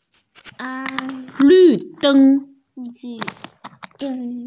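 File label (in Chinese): "lv4deng1", Mandarin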